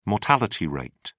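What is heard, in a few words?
"mortality rate", British English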